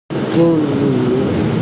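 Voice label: "jin1yu2", Mandarin Chinese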